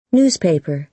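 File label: "newspaper", American English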